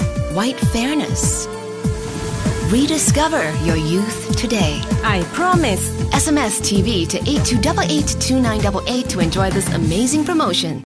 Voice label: Asian English stem cell collagen; Bioskin

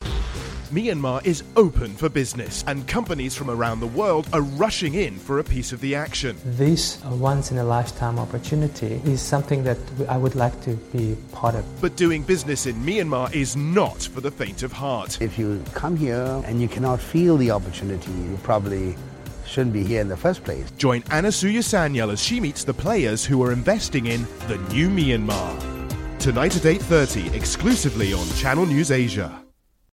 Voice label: UK English Business Promo, Male; CNA

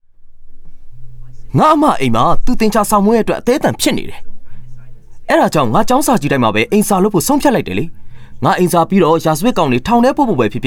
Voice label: Burmese Male

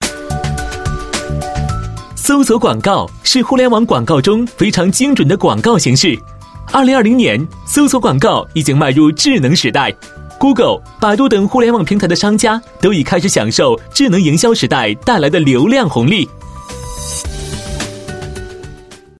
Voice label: Mandarin China; Sou Suo Guang Gao

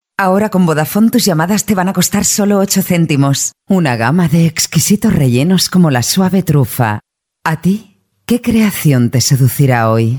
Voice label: European Spanish Commercials, Female

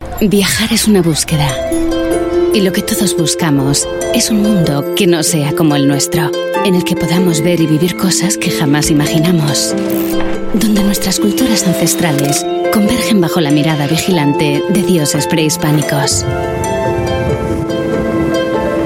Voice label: Spanish European tourism; Mexico

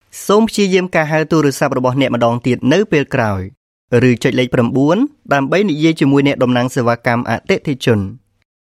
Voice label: Khmer Male IVR

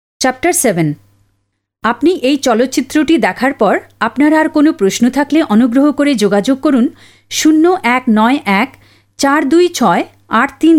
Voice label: Bengali, Female; India

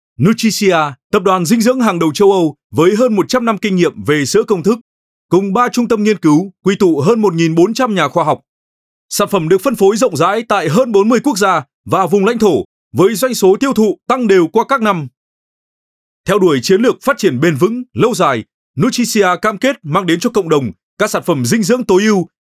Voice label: Vietnamese North Male; Nutricia

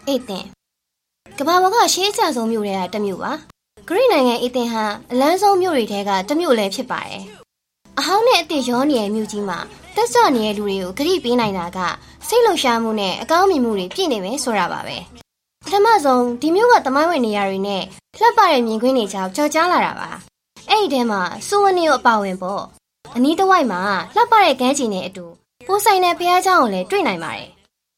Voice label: Burmese Female

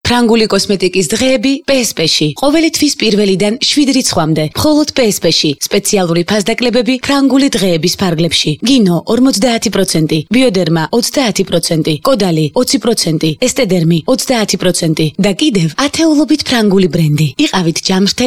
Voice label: Female Georgian

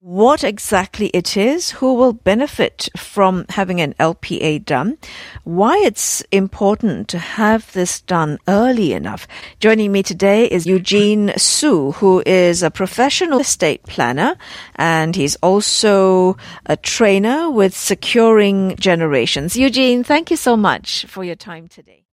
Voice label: Asian English Podcast Snippet

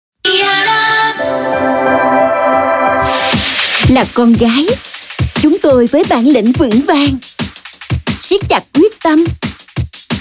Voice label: Vietnamese South Female

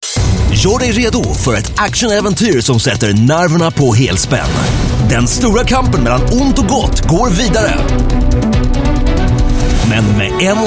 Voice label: Swedish Kids Action, Male

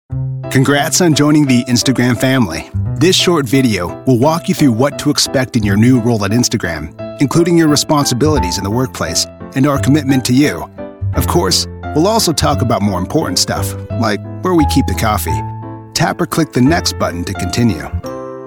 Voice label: US English E Learning

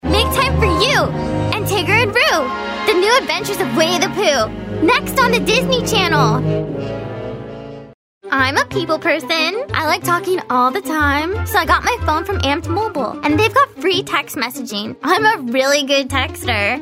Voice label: US English Female Commercial

